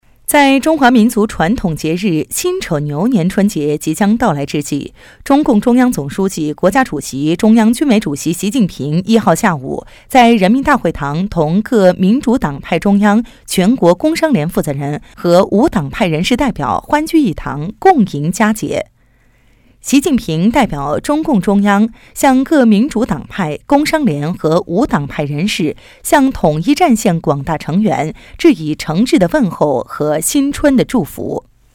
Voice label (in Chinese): 新闻